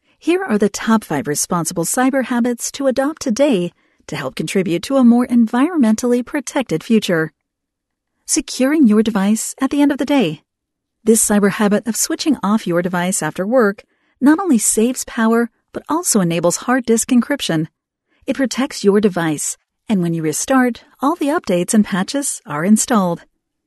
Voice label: Cybersecurity eLearning